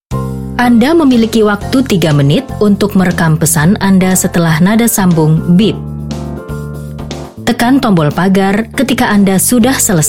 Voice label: INDO IVR